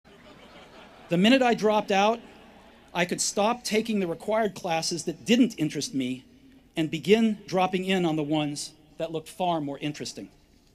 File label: Commencement Address; Steve Jobs; 2005; Stanford